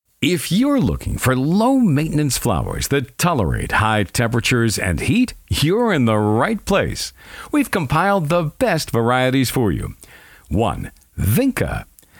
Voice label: English Voice Over Sample